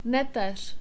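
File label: "neter" Czech, male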